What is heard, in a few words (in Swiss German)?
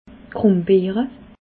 "grumbeer", alsace